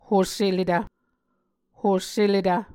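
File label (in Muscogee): "hoseletv", Muscogee word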